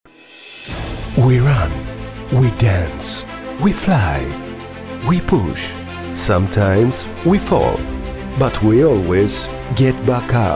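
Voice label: Greek English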